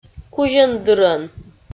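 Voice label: "кузьӧн-дырӧн", Komi, female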